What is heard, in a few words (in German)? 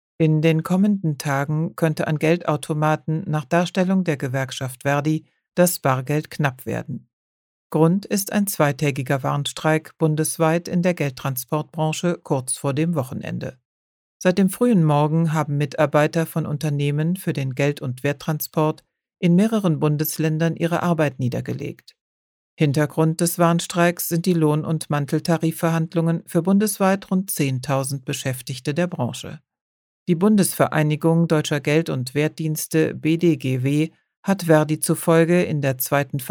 Stimmprobe Sachlich